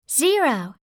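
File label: "zero", English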